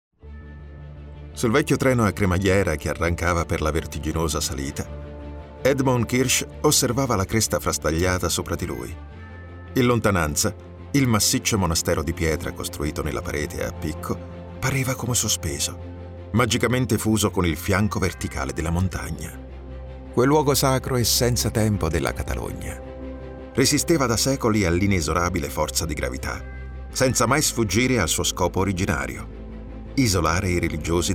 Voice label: italian audiobooks